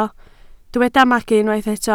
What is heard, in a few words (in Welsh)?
"magu", Welsh